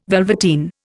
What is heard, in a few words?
"velveteen", Indian